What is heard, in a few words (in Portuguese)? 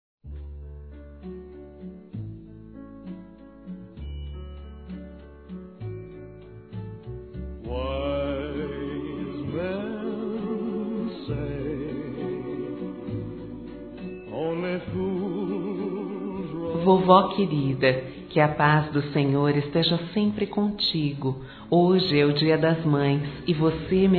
AVÓ DUAS VOZES; Dia das Mães; Masc; Telemensagens 2017